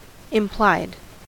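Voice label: "implied", US English; pronunciations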